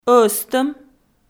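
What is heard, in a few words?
"ӧзтӧм", Komi, female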